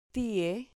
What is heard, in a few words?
"tie", Spanish syllable